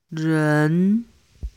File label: chinese pronunciation zhi chi shi ri